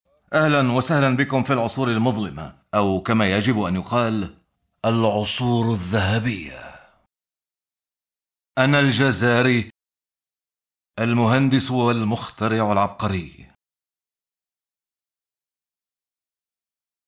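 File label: arabic male narration; voice demos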